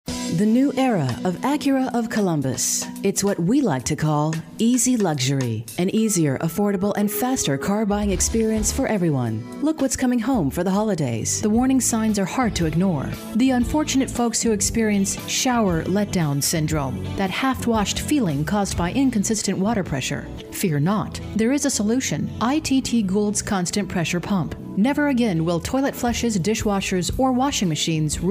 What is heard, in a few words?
Commercial Female; commercial voiceover